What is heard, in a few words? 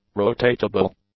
"rotatable", English; adjectives